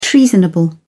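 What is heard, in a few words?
"treasonable", British English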